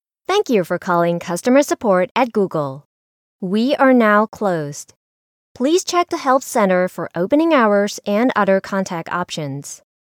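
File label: English interactive voice response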